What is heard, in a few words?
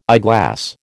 "eyeglass", American